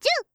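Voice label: "ジュウ", Japanese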